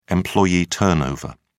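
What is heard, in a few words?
"employee turnover", British English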